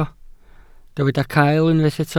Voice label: "cael", Welsh

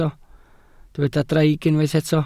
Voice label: "draig", Welsh

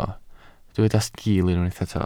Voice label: "sgiil", Welsh

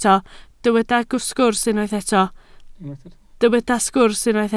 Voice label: "sgwrs", Welsh

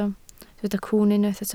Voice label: "cwwn", Welsh

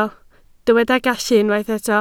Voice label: "gallu", Welsh

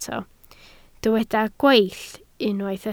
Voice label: "gweill", Welsh

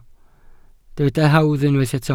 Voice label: "hawdd", Welsh

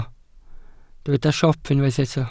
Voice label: "siop", Welsh

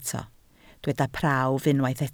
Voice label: "prawf", Welsh

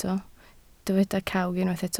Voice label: "cawg", Welsh

